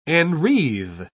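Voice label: "enwreathe", English, British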